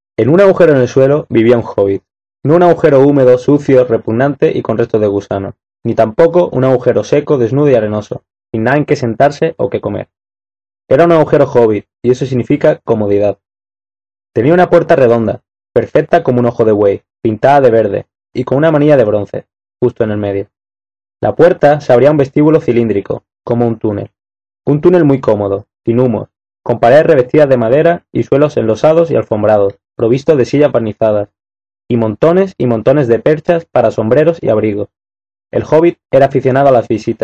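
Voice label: Spanish EU, male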